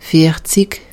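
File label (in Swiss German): "viarzig", Alsatian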